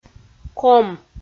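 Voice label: "ком" Komi, female; audio dict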